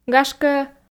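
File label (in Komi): "гашкӧ", female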